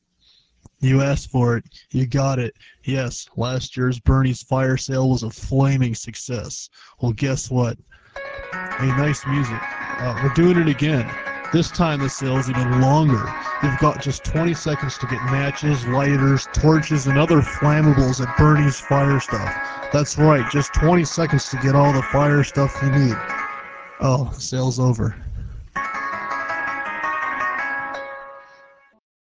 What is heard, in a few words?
Retro Commercial; Burnies Fire Sale